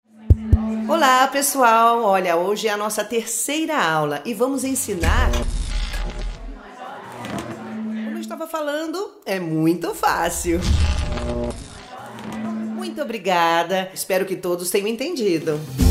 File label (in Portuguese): spot energia